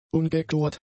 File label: "ungechlort", German; adjectives